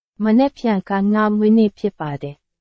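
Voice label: Burmese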